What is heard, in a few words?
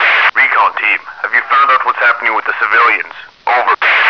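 radio message; recorder; svencoop; hunger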